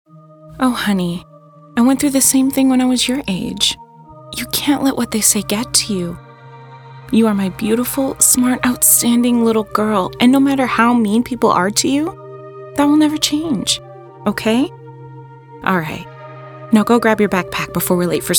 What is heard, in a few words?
english us and canada female